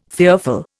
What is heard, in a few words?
"fearful", Indian